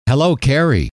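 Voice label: "hello", male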